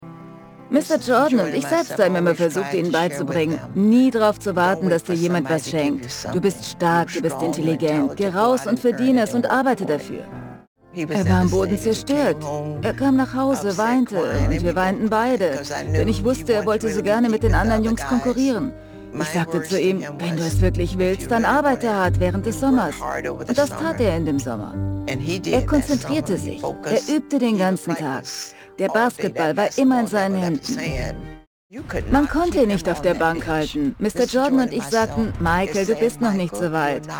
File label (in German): VO Mutter; The Last Dance